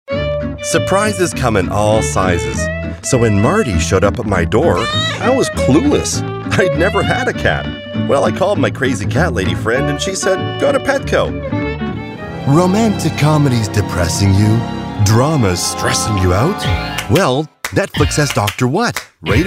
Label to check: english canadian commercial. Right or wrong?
Right